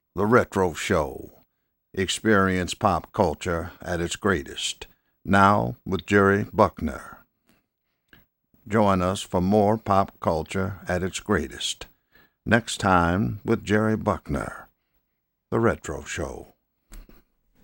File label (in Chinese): 外籍英男, 慢速低沉